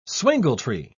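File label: "swingletree", British English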